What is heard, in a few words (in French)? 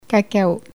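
"cacao", French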